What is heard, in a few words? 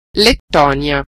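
"latvia", Italian; gcompris-data; geography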